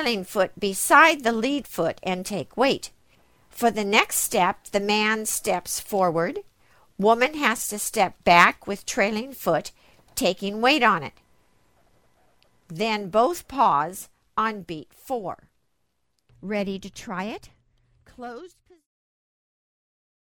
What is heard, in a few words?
half a box scissors thru, square dance